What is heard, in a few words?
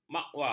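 "mawa", Malay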